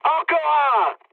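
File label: French player killed enemy mood med; War Thunder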